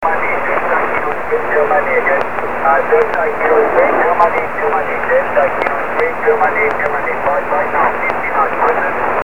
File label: 80 ssb